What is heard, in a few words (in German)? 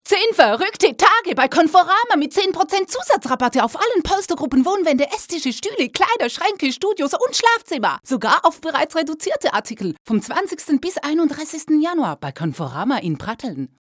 Spot, deutsch; Conforama 2007